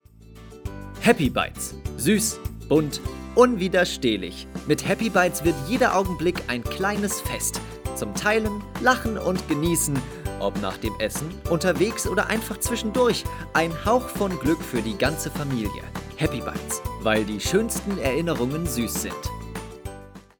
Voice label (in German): mit Musik; Happy Bites